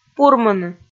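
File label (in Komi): "пурмыны", Komi, female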